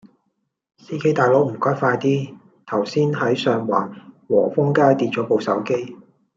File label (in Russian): Голоса, Гонконгский